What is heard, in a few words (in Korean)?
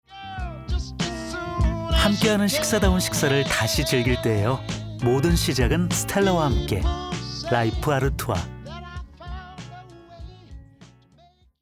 부드러운 바이럴 광고; 스텔라